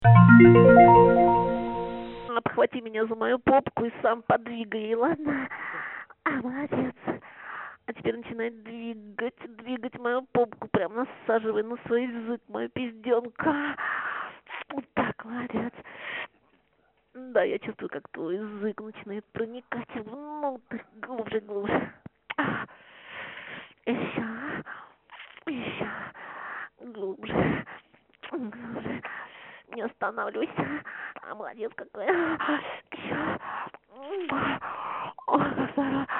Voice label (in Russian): Секс по телефону Запись разговора